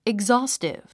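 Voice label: "exhaustive", English word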